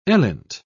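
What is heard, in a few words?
"elint", British English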